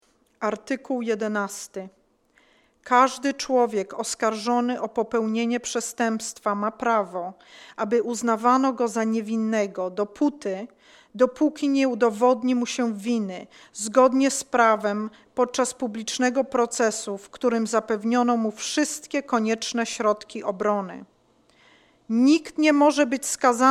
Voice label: Polish